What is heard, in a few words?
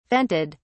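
"vented", British English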